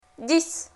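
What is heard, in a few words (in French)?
"Dix", French; Lingvo; francais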